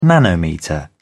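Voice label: "nanometre", British English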